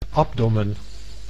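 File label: "abdomen", Dutch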